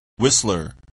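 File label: "whistler", English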